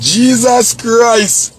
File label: jamaican jesus christ